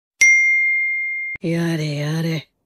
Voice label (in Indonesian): Nada Dering Notifikasi Yare Yare; Naruto